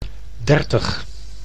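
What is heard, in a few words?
"dertig", Dutch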